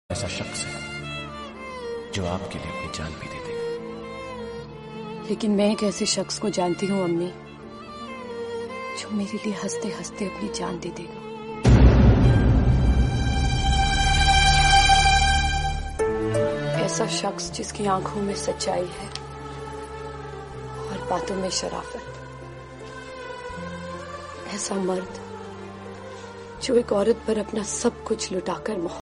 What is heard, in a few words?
romantic female dialogue; ringtone; veer zaara